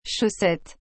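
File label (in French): "chaussette", French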